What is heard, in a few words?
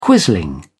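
"quisling", English, uk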